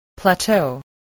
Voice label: "plateau", English